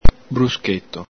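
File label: "bruscheto", Veneto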